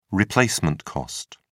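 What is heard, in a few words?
"replacement cost", British English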